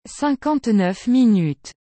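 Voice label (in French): "cinquante neuf minutes", French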